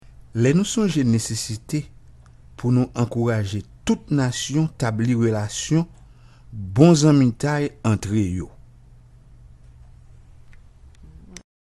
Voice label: HAITIAN KREOL